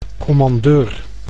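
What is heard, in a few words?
"commandeur", Dutch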